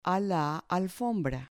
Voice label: "alfombra", Spanish

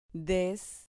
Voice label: "des", Spanish syllable

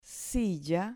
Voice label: "silla", Spanish

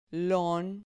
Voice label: "lon", Spanish syllable

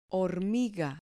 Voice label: "hormiga", Spanish

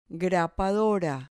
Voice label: "grapadora", Spanish

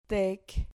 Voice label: "tec", Spanish syllable

